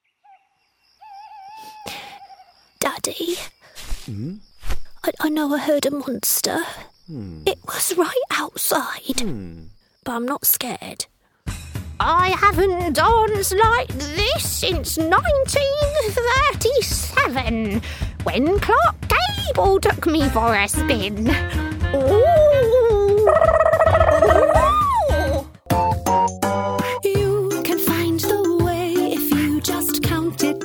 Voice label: english british animation, English (British) voice actor